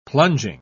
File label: "plunging", pronounce